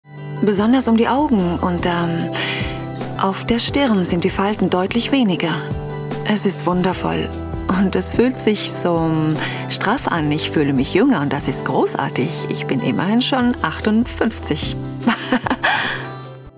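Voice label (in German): Schauspiel, Variabel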